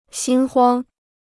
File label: "心慌", Chinese